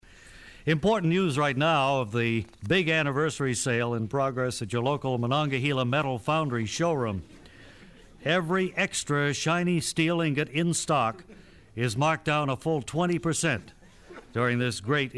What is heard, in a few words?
Commercial